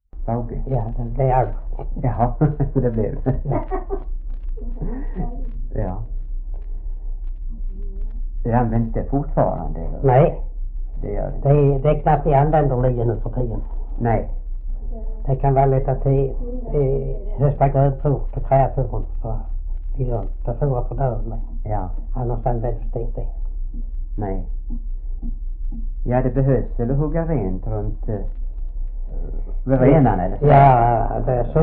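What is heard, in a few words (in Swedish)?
Oderljunga Skåne, Svenska sagor dialekter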